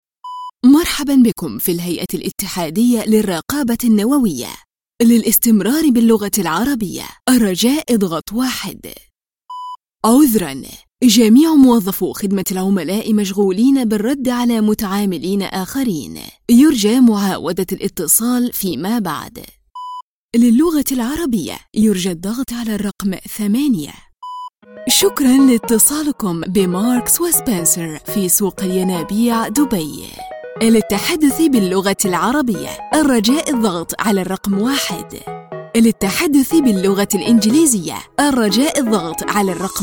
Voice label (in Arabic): arabic ivr; arabic voice actors